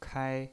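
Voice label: "kai", first tone, pinyin voice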